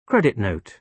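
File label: "credit note", British English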